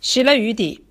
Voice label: "leave me alone", Shanghainese